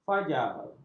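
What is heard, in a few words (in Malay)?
"fajar", Malay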